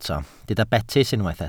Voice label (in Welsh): "betys", Welsh